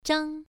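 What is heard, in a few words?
"zhang", Mandarin Chinese, first tone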